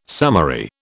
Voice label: "summary", British

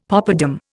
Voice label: "poppadam", Indian; Dictionary

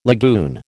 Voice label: "lagoon", American

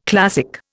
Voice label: "classic", Indian

